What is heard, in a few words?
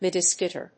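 "midiskirt", English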